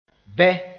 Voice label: "bɛ", moore; stop up a hole